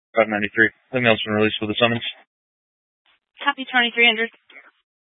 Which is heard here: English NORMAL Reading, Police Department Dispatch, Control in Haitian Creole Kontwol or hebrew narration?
Police Department Dispatch